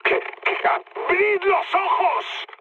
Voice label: player friendly fire, mood high, speech

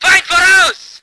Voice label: voice ger vehicle

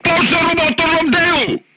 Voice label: voice message fire in tank, crew tanks serbian

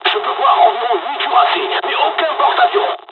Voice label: japanese first wave, dialogs french; War Thunder

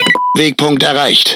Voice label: Speech Autopilot Checkpoint, DE; World Of Warships

